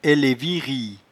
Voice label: "eleevirie", patois jura